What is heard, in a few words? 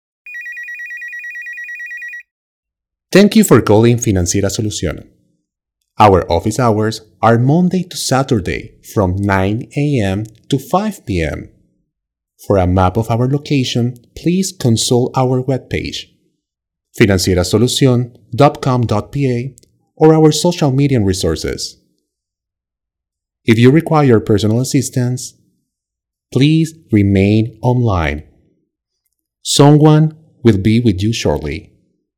answer eng